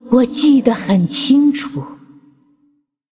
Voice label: Female Ghost Mirror Greetings; zh